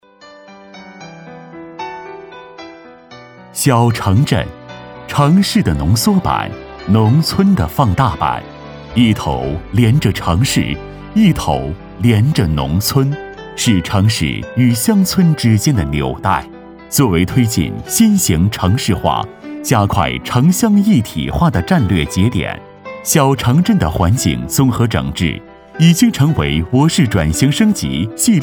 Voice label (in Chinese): 男 专题 小城镇 舒缓